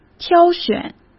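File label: "挑选", Mandarin Chinese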